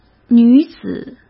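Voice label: "女子", Mandarin Chinese; hsk; chinese-flashcards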